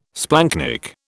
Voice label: "splanchnic", British